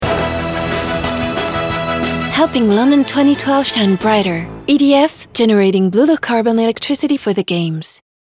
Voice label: Anglais; EDF

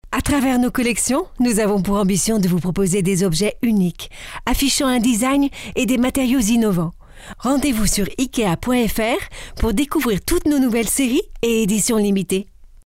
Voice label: enjoué complice dynamique; ikea

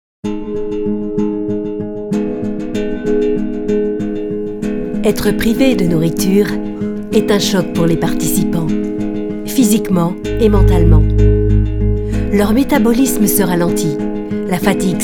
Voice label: Voix émission TV explicative

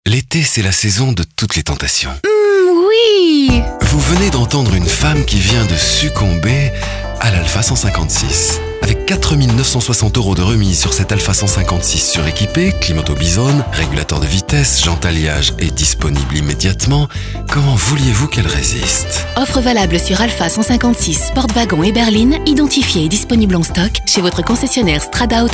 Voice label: Radio; ALFA 156

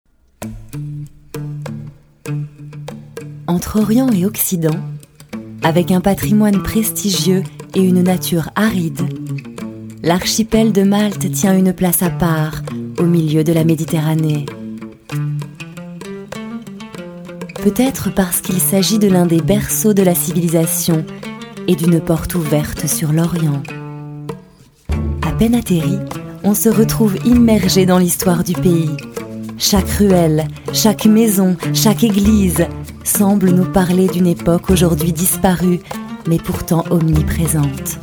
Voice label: Documentaire; Malte

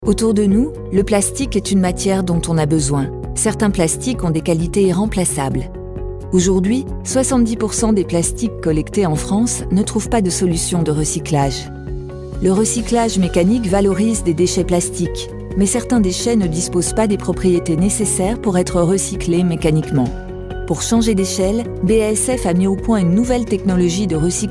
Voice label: institutionnel posée technique; BASF

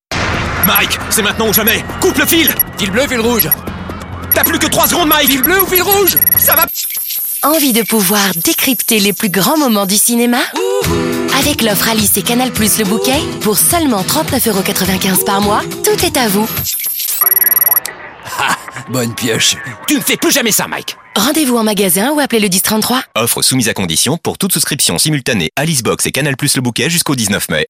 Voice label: dialogue voix off court

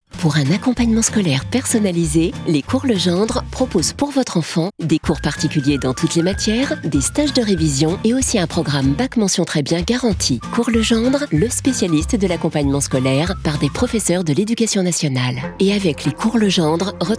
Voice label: Pub radio Dynamique; Cours Legendre

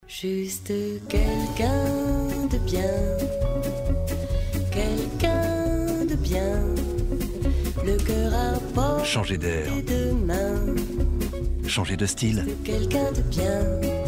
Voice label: sympathique proche chaleureux; atolAirport

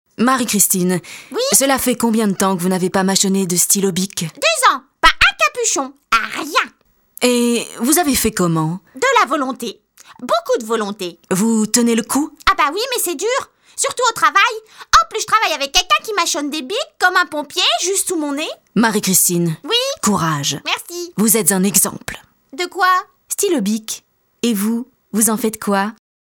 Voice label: 2 voix; Bic

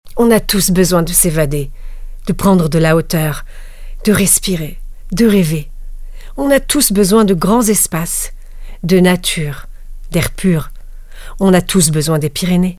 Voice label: impro; pyrenees

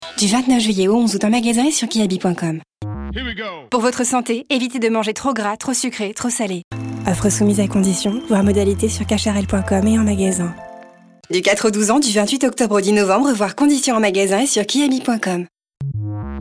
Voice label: Medley MENTIONS LEGALES

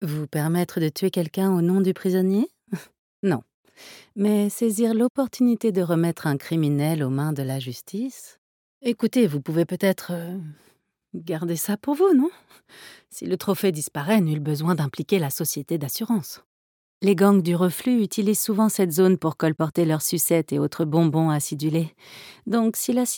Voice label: Jeu Video conseillere politique

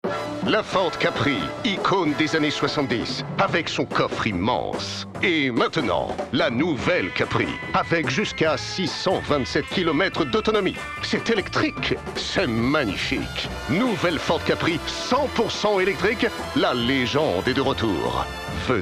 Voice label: Lancement Radio; voix-off; Ford CAPRI; Radio Natio

